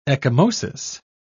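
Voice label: "ecchymosis", British English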